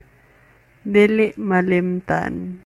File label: Cebuano